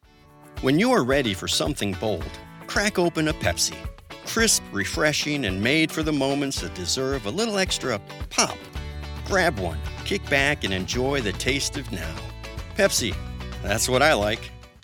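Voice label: online ad voiceover energetic digital commercial; Pepsi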